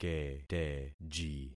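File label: "keteji", concatenated syllables; Affixing experiment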